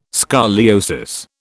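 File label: "scoliosis", British English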